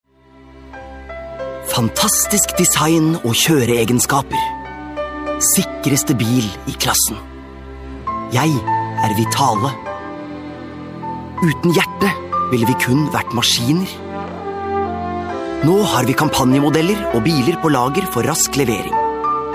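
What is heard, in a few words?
norwegian male commercial, voice demos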